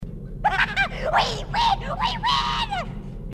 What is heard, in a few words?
haha we win we win, grunts dialogue